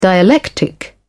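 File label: "dialectic", British English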